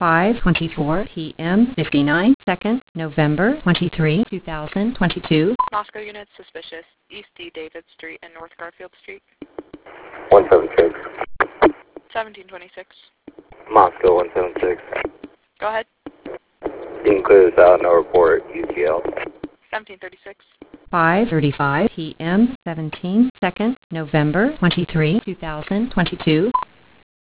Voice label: suspicious person radio traffic